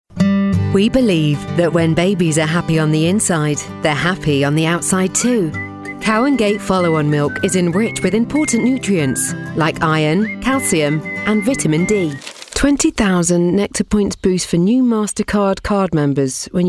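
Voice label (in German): UK English, Variabel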